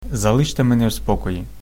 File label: leave me alone, ukrainian